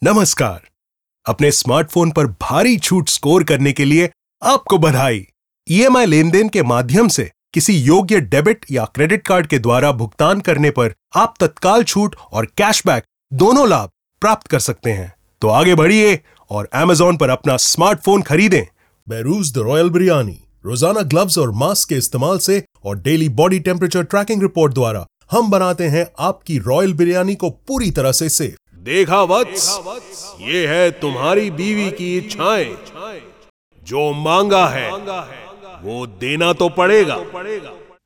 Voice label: Hindi VO Showreel, Indian Voice Over